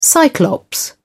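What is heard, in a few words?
"cyclops", British English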